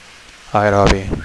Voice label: "aerobi", Catalan